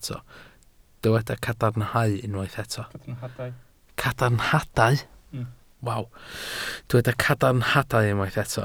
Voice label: "cadarnhadau", Welsh